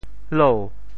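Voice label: "lou2", Teochew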